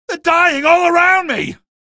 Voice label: evac casualty, male; video games; npc; source engine; episodic; episode 1

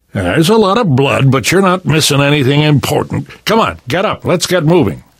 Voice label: revive friend, left4dead2 survivor voice namvet

